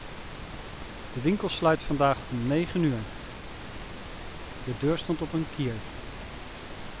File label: male Dutch Pink Noise; Speech Quality Examples